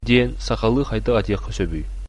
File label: how to say, sakha